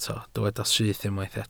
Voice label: "syth", Welsh

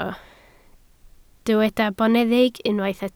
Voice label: "boneddig", Welsh

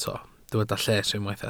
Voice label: "lles", Welsh

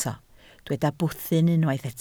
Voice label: "bwthyn", Welsh

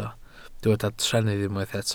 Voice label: "trennydd", Welsh

